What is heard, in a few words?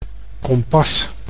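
"kompas", Dutch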